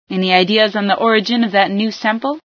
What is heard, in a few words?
new sample, female sci